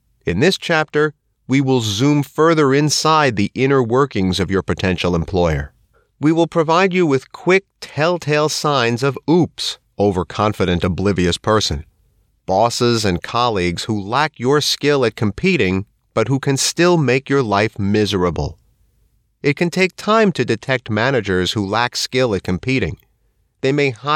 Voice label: Non Fiction Audiobook